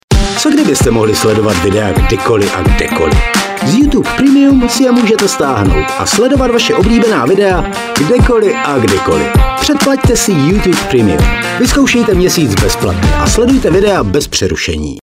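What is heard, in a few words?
Czech male Advert youtube premium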